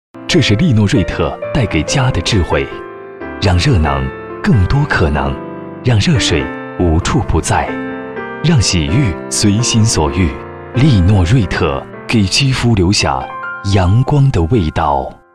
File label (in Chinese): 广告 家居家电 热水器广告 亲切, 男国